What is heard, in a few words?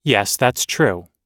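everyday agreeing, American English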